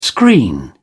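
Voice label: "screen", British English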